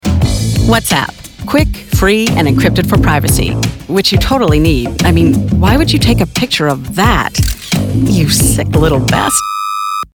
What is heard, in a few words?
Whats App Quick Cute